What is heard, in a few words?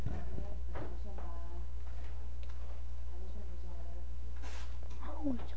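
"ring", spoken word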